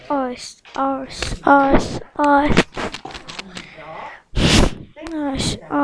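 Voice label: "also", spoken word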